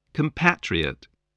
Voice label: "compatriot", english